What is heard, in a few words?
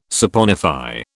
"saponify", British